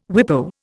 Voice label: "WIPO", Indian